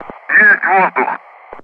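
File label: Speech Russian; Ground Crew; air is now applied; DCS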